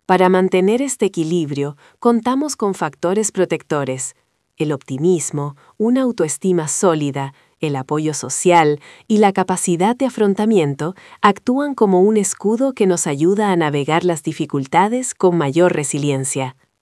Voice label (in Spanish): locucion